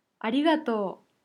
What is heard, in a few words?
thank you japanese